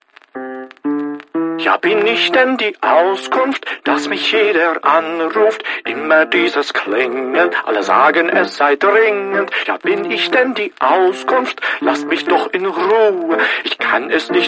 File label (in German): ankundigung deutscher klingelton